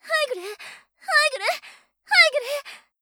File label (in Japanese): ハイグレ, 姉